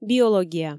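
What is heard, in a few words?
"биология" Komi, female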